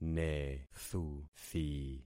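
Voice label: "nethuthi", concatenated syllables; Affixing experiment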